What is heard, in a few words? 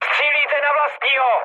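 player friendly fire, Czech, mood med; War Thunder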